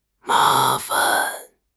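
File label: "ma2 fan5", Mandarin Chinese; HSK4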